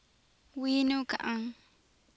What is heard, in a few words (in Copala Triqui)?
"güii nu̱' ca'anj", Copala Triqui